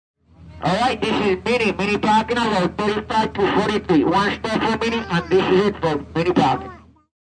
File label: Shttl Annc, Shuttle ride announcement from driver.; Busses; Vehicles